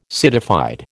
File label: "citified", American